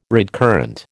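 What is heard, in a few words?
"redcurrant", American English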